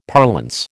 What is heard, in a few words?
"parlance", American